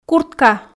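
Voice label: "куртка", Komi, female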